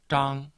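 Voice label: "zhang1", Mandarin Chinese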